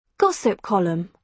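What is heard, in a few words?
"gossip column", British English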